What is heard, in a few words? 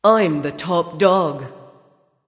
vox emote; smite; skadi